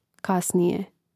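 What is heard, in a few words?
"kasnije", Croatian; Mrežnik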